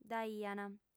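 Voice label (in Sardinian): "dd'aiant", female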